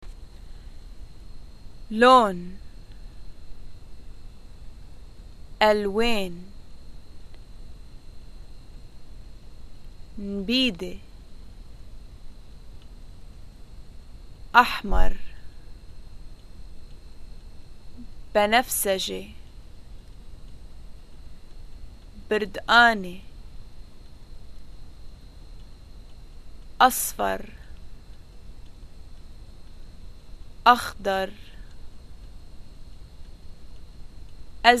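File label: colors, Arabic, Lebanon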